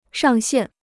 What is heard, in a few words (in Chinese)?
"上限", Chinese